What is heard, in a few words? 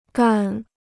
"干", Chinese